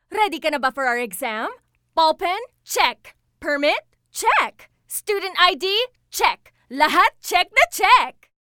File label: Taglish, Confident, Clear